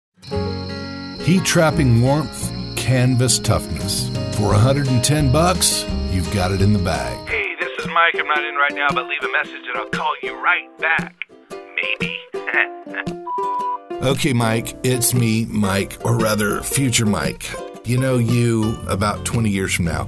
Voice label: COMMERCIAL